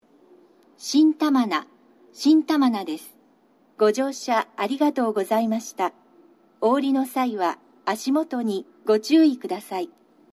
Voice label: 12番のりば到着放送; 新玉名

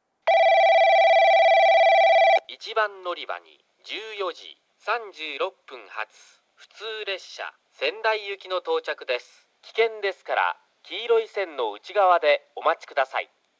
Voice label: 1番のりば接近放送（普通 川内行き）, 男声放送; 伊集院

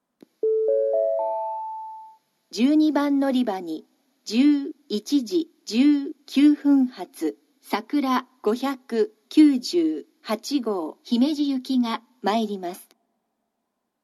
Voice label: 12番のりば予告放送, 新幹線ホーム; 新鳥栖; さくら598号 姫路行き

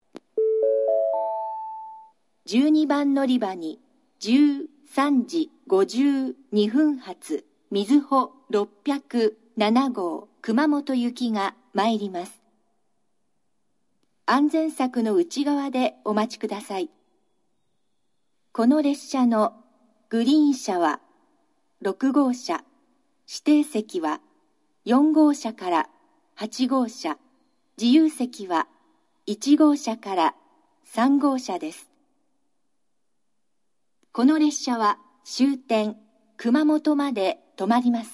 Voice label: 12番のりば予告放送（みずほ607号 熊本行き）, 久留米（新幹線ホーム）

